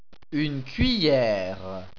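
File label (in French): "cueillere", French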